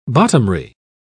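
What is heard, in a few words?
"bottomry", British English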